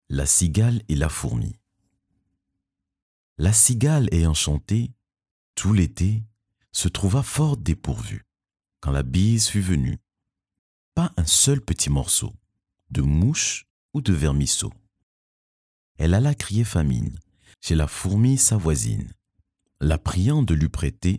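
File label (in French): commerciale, fr; fourmi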